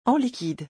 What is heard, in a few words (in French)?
"en liquide" French; vocabulary; shopping